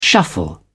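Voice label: "shuffle", British English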